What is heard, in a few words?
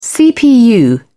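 "cpu", British English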